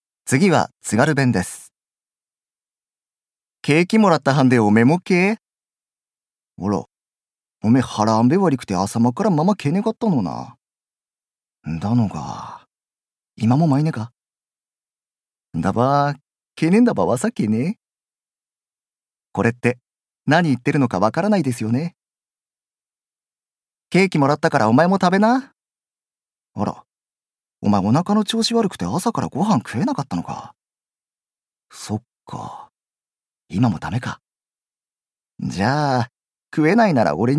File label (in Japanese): 方言